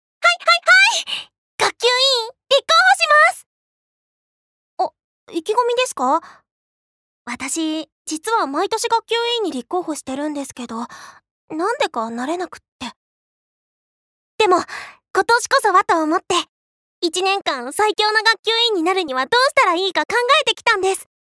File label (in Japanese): アニメ